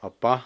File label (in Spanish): "hombre", Cucapá; Parentesco